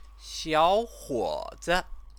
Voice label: "xiăohuŏzi", Chinese; 小 伙 子; young man noun